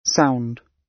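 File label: "sound", British English; Design and innovation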